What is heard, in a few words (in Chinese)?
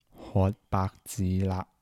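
脫白至肋-台城-男, 台山话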